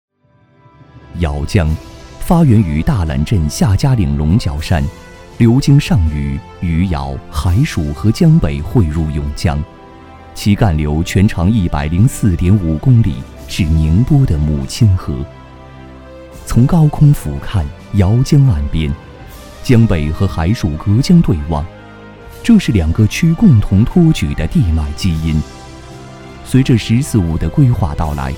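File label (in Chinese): 男 浑厚大气, putonghua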